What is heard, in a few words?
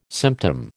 "symptom", American